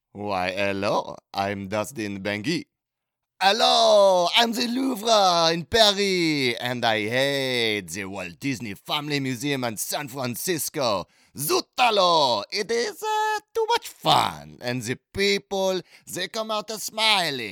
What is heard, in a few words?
Mental Health (British); LOUVRE